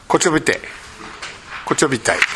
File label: "kochobitae", minamiyamagata